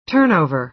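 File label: "turnover", American English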